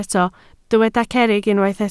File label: "cerrig", welsh